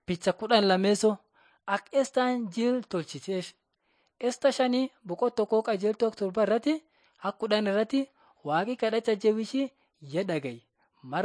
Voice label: borana sakuye